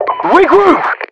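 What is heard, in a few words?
British regroup; Voiceovers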